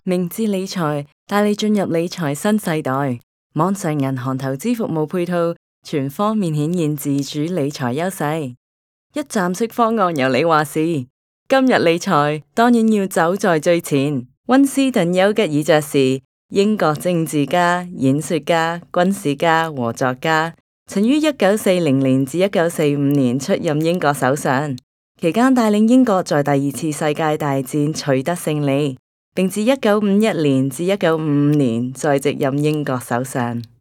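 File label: Cantonese, general; GoLocalise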